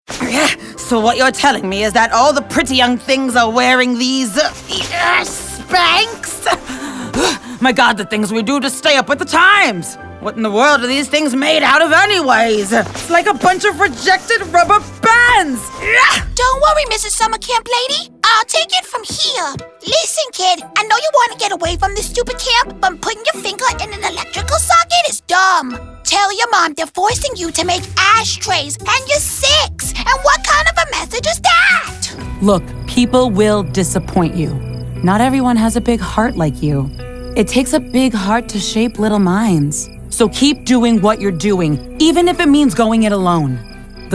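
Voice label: voice over character; global voice acting academy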